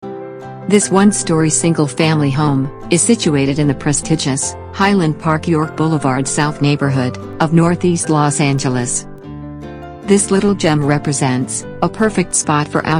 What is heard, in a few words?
website voice